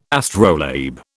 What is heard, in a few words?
"astrolabe", British